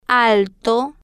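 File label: "alto", Spanish, female, slow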